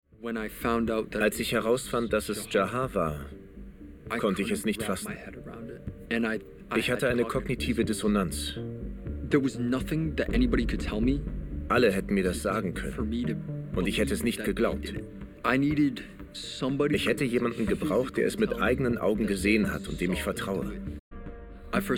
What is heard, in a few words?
VO American; Manhunt